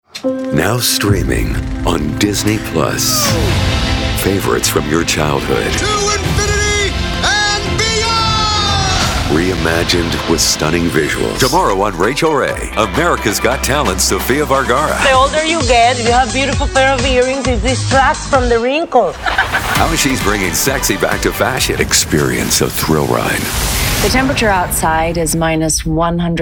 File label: VO TV Promo; voice-over demos